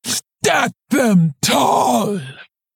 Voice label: Female Quilboar Play